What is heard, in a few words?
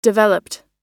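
"developed", US English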